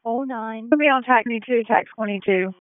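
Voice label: Fire Department HQ Dispatch; RFD HQ DISP; p25; wake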